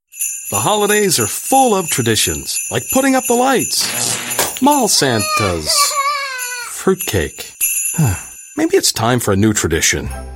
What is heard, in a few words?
TV Commercial